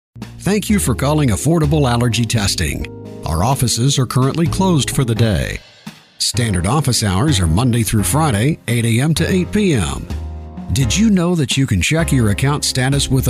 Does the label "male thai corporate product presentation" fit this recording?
No